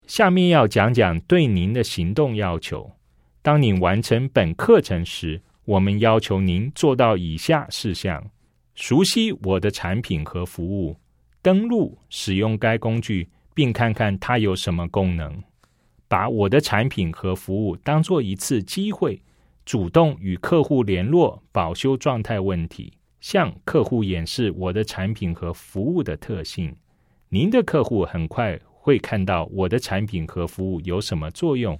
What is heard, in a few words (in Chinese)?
CHINESE